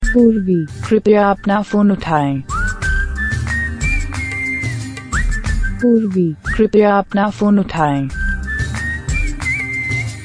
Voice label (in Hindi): कृपया अपना फ़ोन उठाइये ringtone music